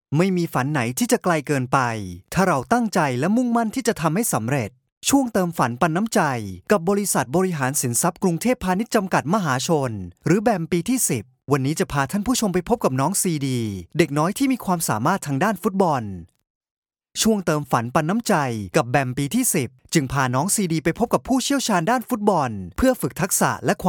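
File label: Thai, male, corporate; voice-over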